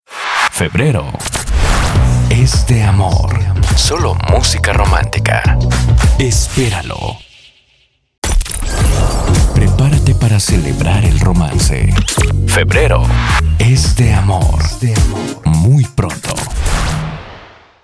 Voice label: spanish mexican podcasts

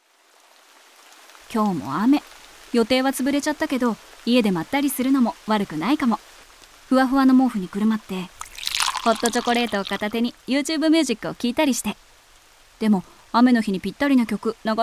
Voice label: japanese promos

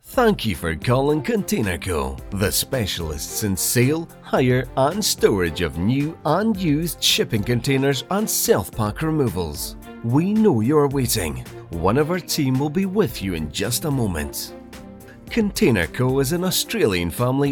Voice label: english scottish ivr

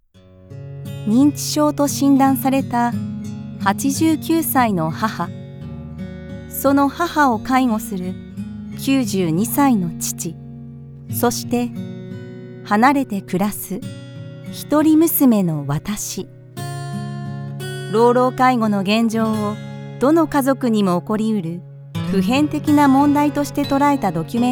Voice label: japanese movie trailers

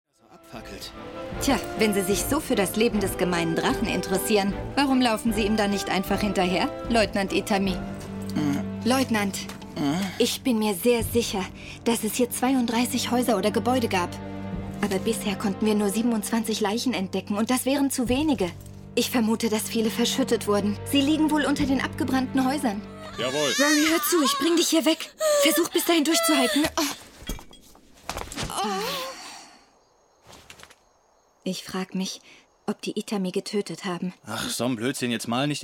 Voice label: german animation

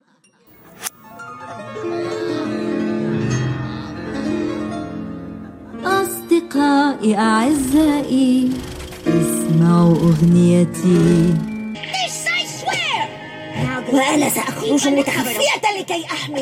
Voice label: arabic animation

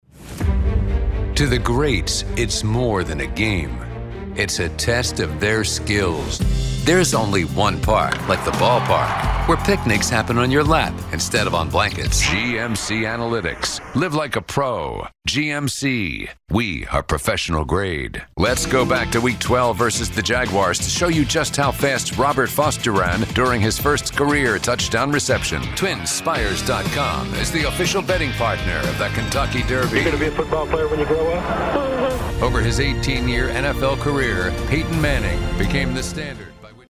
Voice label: english american others; North American English

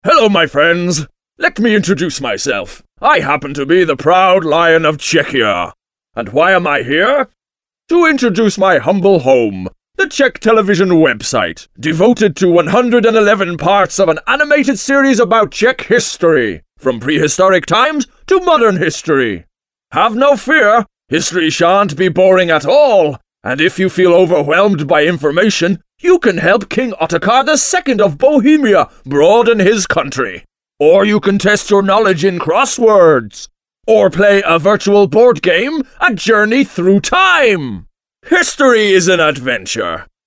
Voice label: english irish audiobooks

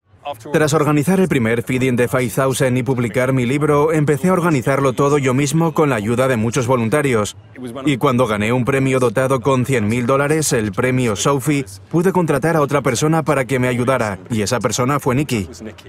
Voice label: spanish documentaries

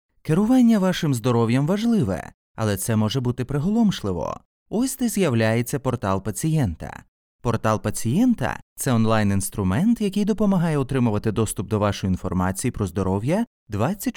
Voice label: ukrainian narration; Narración

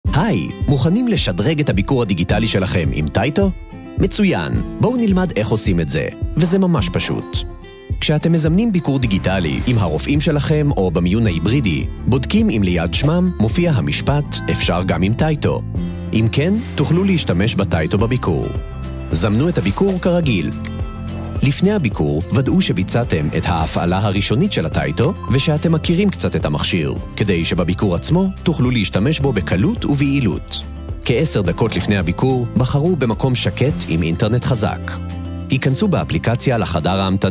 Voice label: hebrew e-learning

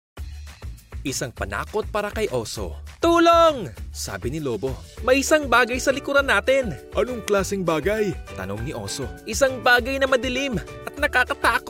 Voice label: tagalog narration

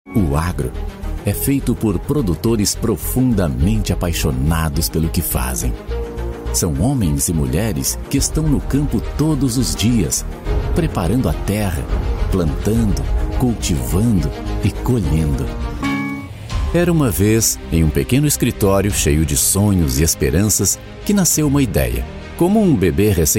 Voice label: portuguese brazilian documentaries; locutor brasileño

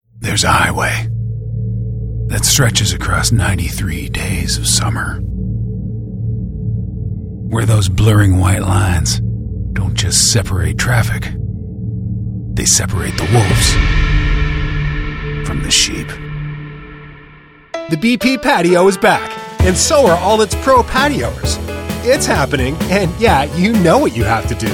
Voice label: english canadian commercial

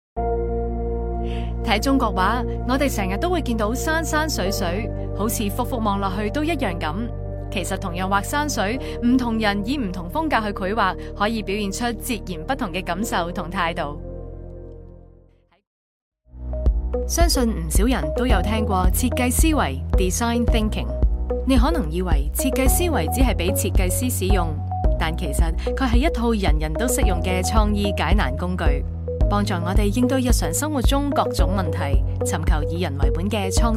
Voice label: chinese cantonese narration

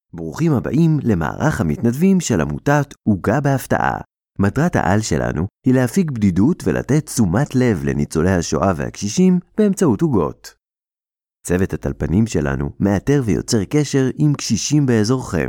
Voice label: Hebrew animation

